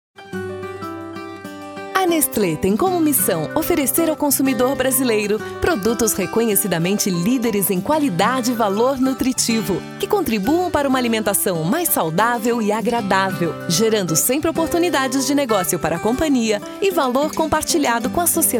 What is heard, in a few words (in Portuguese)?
locutora